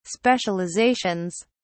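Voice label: "specializations", British English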